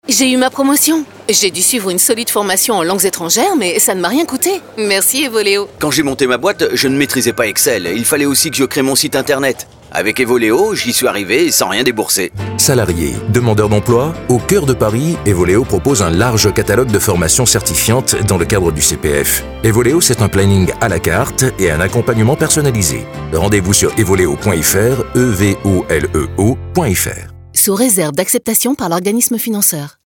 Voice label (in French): Spot pub; EVOLEO